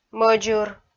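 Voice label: "мӧдзыр", Komi-Zyrian, female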